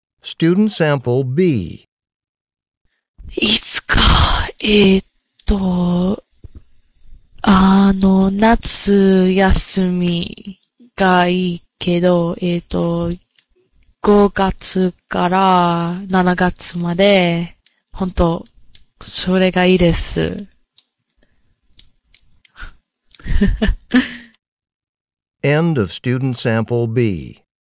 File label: japanese conversation